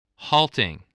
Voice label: "halting", voice

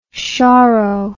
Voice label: "choro", English

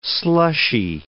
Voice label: "slushy", english

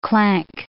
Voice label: "clank", English

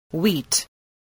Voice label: "wheat", spelling

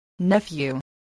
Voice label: "nephew", voice

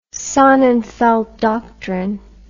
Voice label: "sonnenfeldt doctrine", English

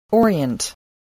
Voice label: "orient", English voice

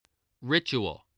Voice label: "ritual", English spelling

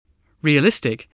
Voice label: "realistic", english

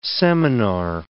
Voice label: "seminar", English

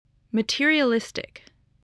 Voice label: "materialistic", spelling voice

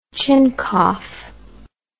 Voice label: "chincough", English

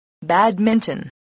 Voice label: "badminton", english

